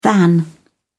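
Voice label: "than", English, British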